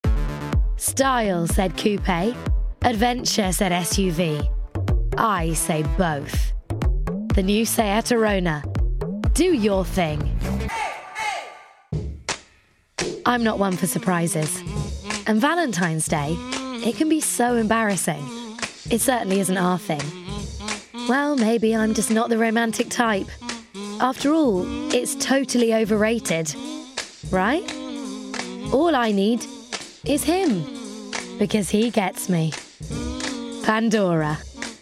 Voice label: Commercials

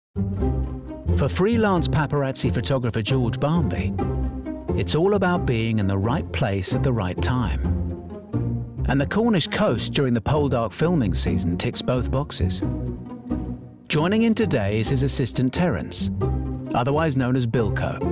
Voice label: Documentary

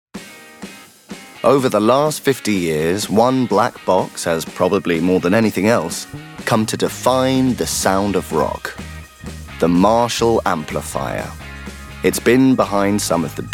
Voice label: Documentary

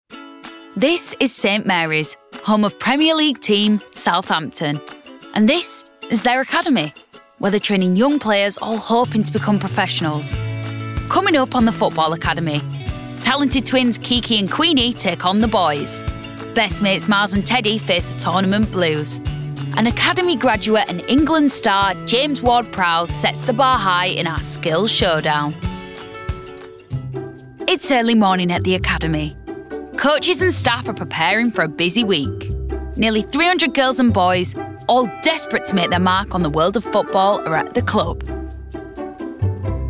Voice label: Documentary

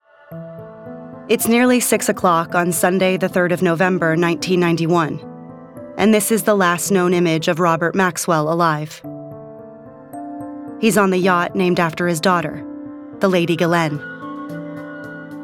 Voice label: Documentary

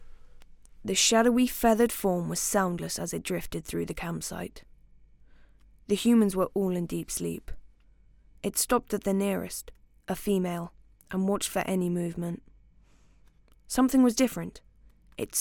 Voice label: Narrative

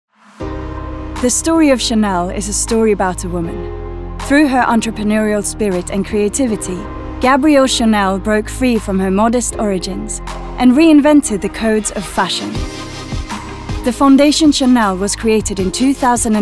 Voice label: Narrative

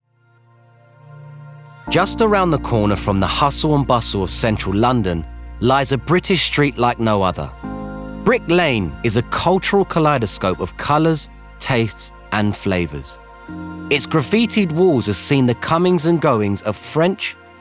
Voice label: Documentary

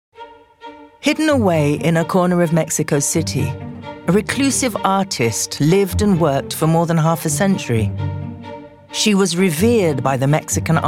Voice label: Documentary

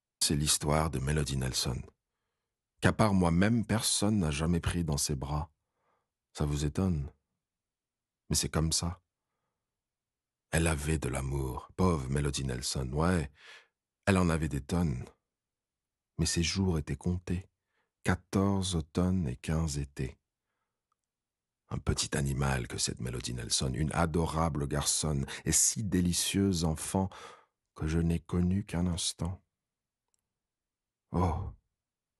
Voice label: French

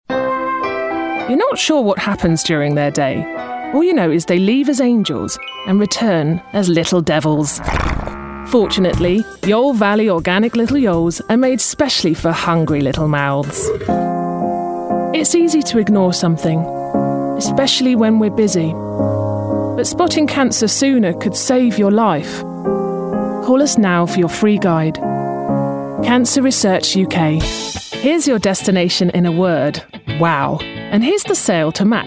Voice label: Commercial

